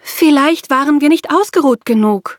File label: defeat, de; cookie run